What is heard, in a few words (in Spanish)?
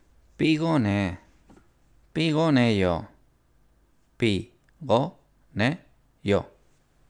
estar cansado en coreano